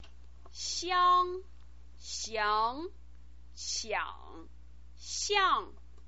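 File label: "xiang", Mandarin Chinese pinyin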